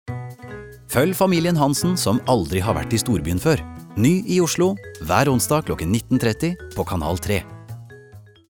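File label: Norwegian promo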